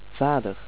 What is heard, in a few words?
father, dutch